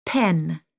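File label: "pen", English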